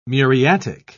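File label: "muriatic", British English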